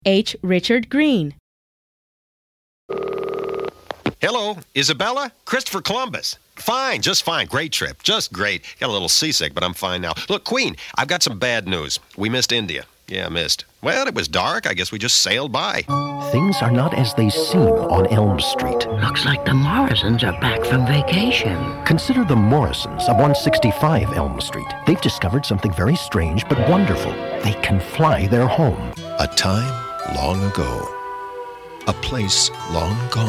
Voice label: commercial; Innovative LA